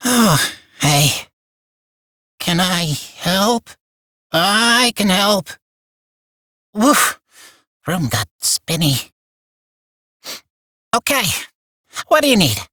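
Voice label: Barks Bird, English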